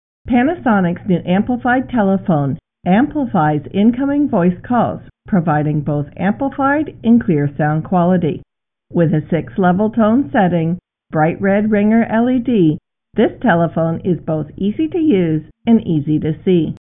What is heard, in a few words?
Amplified Phones KX-TGM4xx Low Tone